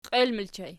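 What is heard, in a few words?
"qiel" ergative plural, Archi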